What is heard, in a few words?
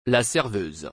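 "La serveuse", French; خدمتکار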